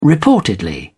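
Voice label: "reportedly", British English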